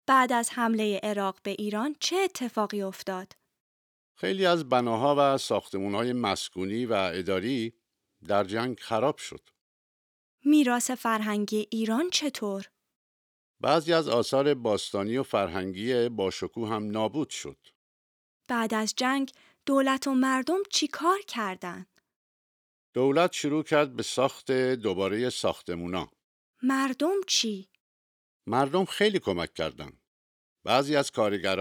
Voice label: Dialogue lesson Farsi